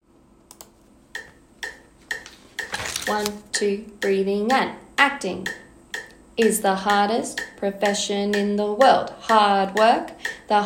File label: ACTORS Spoken in rhythm (125bpm); Spoken Recordings; Fame The Musical - Holiday Pack